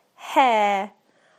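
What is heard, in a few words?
"hair", British English; PictureDictionary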